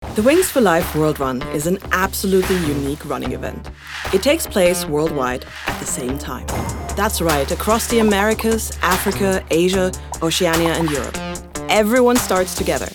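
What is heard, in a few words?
British English, Corporate; voice demos; GoLocalise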